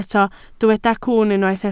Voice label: "cwwn", Welsh